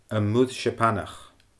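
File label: "Am Muir Seapanach", Scottish Gaelic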